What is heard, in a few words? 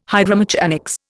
"hydromechanics", Indian